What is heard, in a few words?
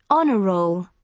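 "honor roll", British English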